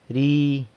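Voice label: "ri", San Lucas Quiavini Zapotec; are around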